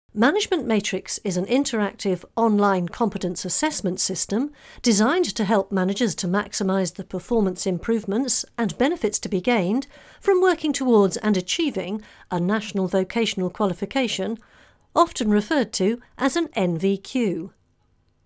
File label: e-learning